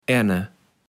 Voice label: "enne", kaevels platt

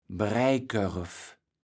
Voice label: "Breijkörv", kaevels platt

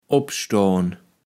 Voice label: "opstohn", kaevels platt

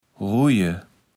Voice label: "Ruje", Platt

